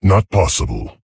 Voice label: Male Tauren; ERROR GENERIC

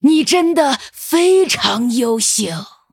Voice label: Female Night Elf Well Played, zh

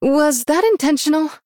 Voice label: Female Human Oops; en

